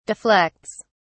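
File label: "deflects", British English, dictionary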